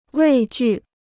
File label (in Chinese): "畏惧", Chinese